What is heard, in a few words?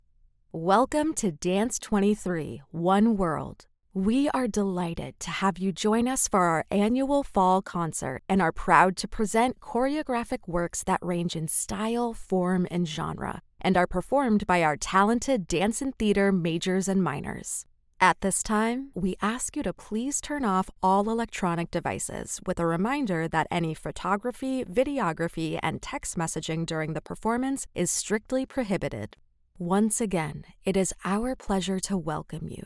VOG